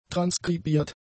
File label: "transkribiert", German; adjectives